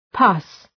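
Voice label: "pus", English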